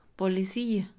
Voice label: policía, Mixe, Mujer; INALI

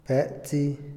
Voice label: poseer, Otomí, hombre; Posesión; INALI

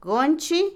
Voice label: col, repollo, Otomí, mujer; Comida; INALI

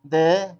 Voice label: "ahí", Zapoteco Magdalena Ocotlan; Adverbios; INALI; Haspelmath

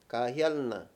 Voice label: callarse, Mayo; Habla y lenguaje

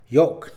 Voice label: "voz", Mixe, hombre; Habla

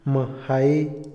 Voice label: patria, Otomí, hombre; Sociales; Vocabulary; INALI

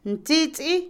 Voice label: amigo, compañero, Otomí, mujer; INALI

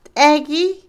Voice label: Otomí, mujer; hacha; INALI

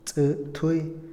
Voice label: "juicio", Otomí, hombre; INALI

